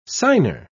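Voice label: "signer", English, British English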